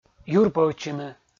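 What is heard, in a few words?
"юрпӧвтчыны", Komi, male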